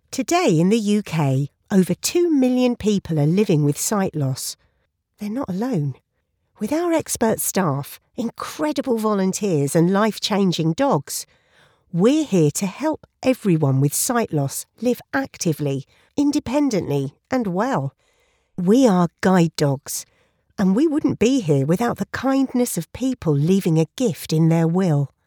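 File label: English UK female; Guide Dogs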